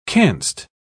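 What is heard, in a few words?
"canst", British English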